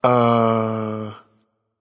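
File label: "seriously", male; Smite; baronsamedi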